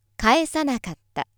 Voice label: female